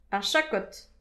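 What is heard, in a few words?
"ar chakod", Breton; petit precis de prononciation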